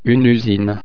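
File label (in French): "usine", French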